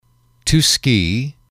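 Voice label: "to ski", English, male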